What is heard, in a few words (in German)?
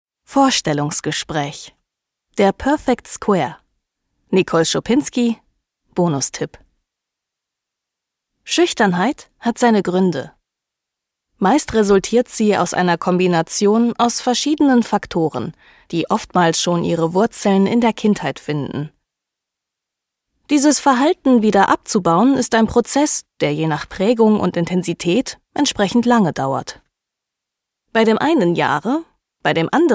Bewerbungsgespraech freundlich; Sachbuch